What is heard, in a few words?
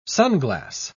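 "sunglass", English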